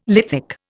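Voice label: "lithic", Indian English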